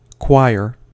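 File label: "choir", American English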